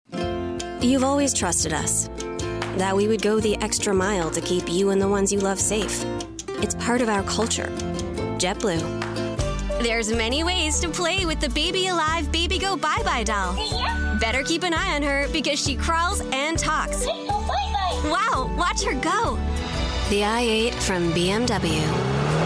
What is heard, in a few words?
English USA Commercial